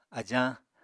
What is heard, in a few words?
"ajañ", Apatani